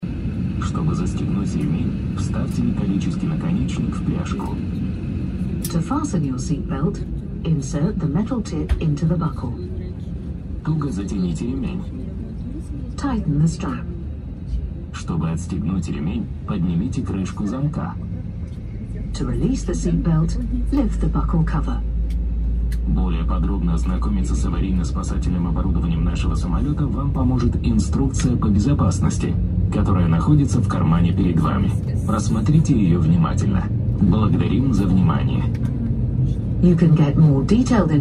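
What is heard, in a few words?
instrukcyi pered vzletom samoleta, instructions before aircraft takeoff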